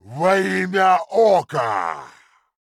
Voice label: Male Worgen Attack; Hearthstone; датамайн обновления 5.0